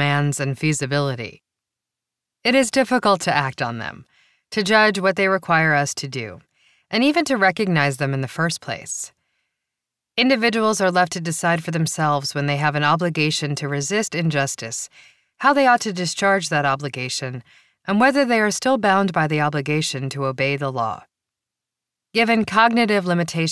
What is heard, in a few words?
Voice Over Non Fiction